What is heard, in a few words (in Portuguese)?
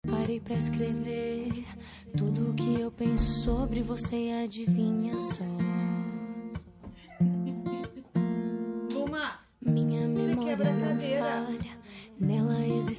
dia das mães distante, voz feminina; dia das maes para mae distante voz feminina